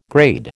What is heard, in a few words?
"grade", American